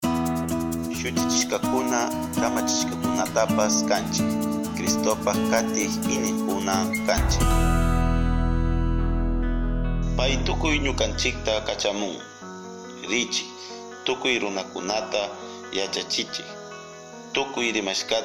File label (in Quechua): Domund kichua audio; MDomund 2019